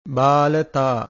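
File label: "baalataa", Pali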